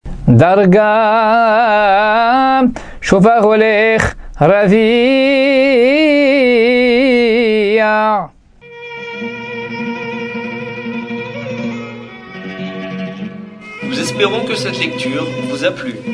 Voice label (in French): apprentissage taamim selon le rite constantinois